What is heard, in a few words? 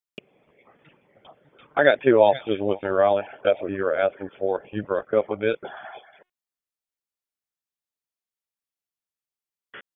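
Raleigh Police Southeast District Dispatch, p25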